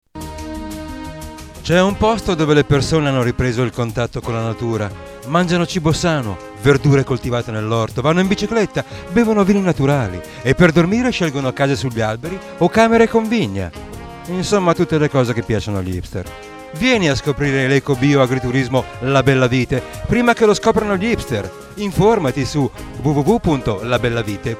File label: spot radio